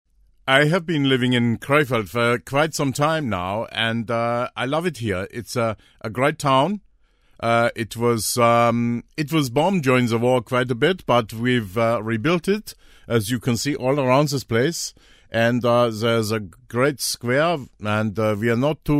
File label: german accent